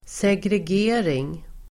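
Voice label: "segregering", Swedish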